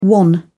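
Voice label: "wan", English, British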